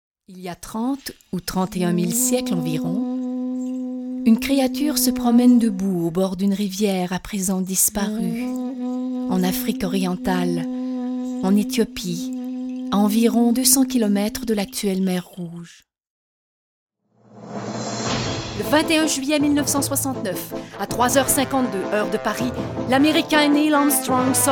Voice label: pub doublage

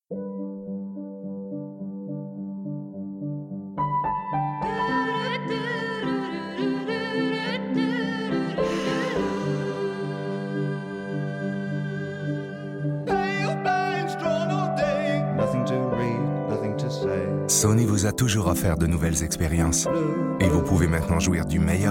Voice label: Publicite

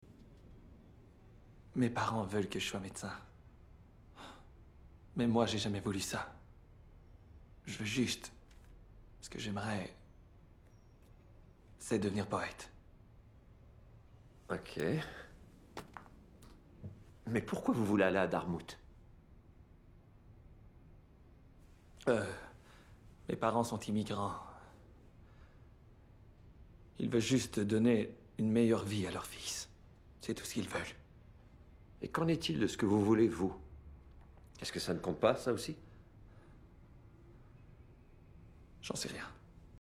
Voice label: doublage

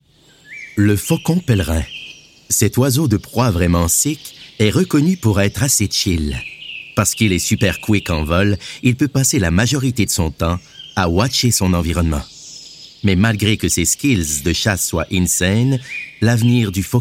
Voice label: voix Francais

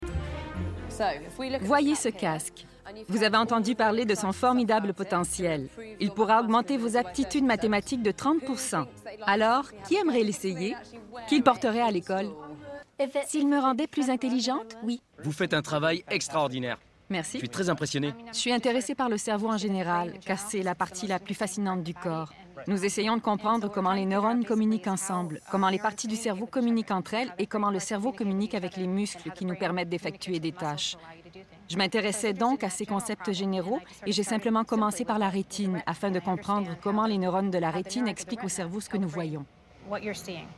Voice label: Surimpression vocale

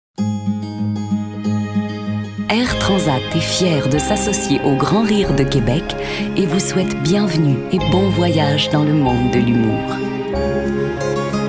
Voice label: Publicite

